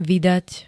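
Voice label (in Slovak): "vydať", Slovenské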